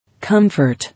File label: "Comfort", English